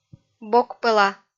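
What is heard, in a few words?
"бокпӧла", Komi-Zyrian, female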